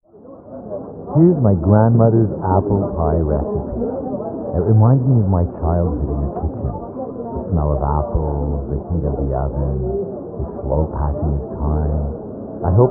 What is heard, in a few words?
voice, -60db 1k to 2k